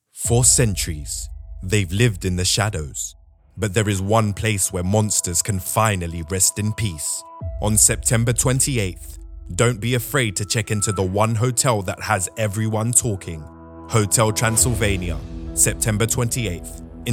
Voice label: Character